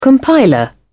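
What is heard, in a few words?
"compiler", British English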